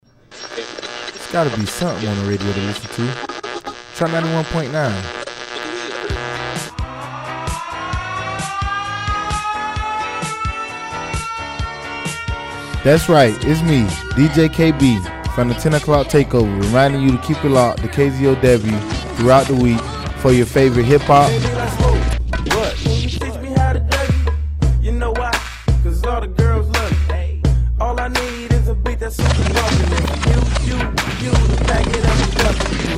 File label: music promo